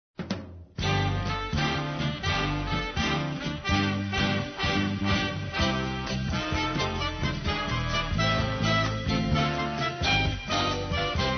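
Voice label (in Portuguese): Aniversário Neutro Evangélico Masculino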